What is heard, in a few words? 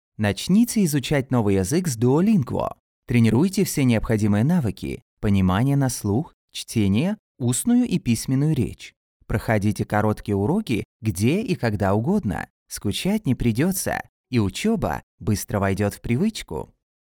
russian explainer videos